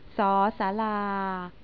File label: "sala", Thai